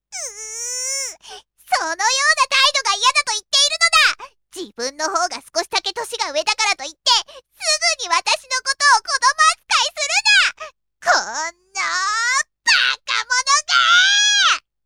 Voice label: female

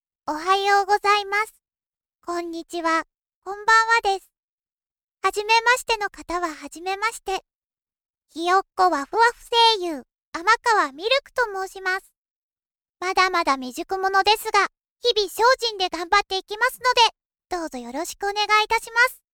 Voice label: milk voice

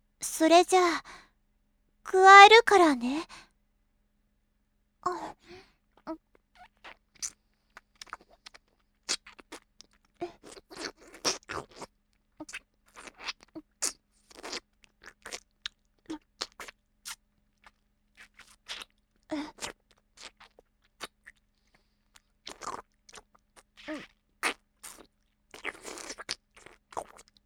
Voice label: boyish girl, milk voice, adult; freezing

